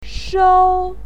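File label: "shou", Mandarin Chinese, first tone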